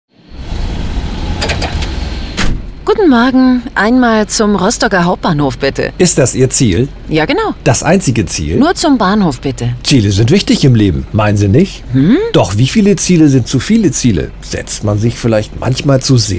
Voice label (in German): radiospot; relikampagne